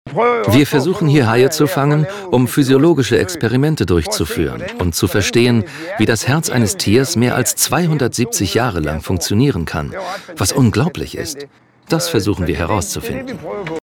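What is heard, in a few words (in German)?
Voiceover Grönland Forscher; DE; Groenland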